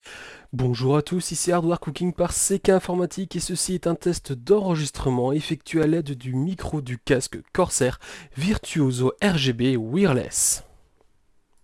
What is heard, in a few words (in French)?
test micro corsair virtuoso